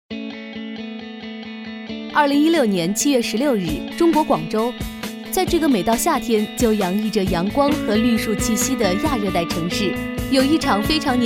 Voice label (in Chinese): 女, 解说, 亲切解说词, 国语女声; 环境交流大会